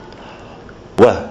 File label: w as in waka, kiwi english